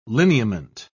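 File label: "lineament", British English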